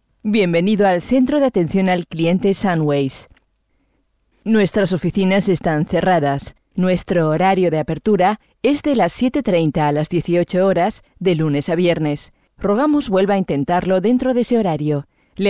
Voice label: es phone; Sunways